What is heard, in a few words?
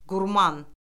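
"qurman", Azerbaijani; Orfoqrafiya Lüğəti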